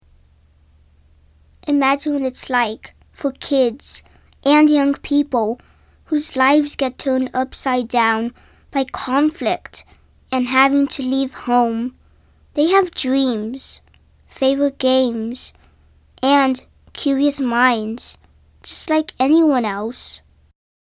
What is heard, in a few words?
promo